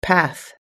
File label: "path", us english